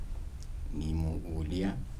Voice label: "I am eating", Kibembe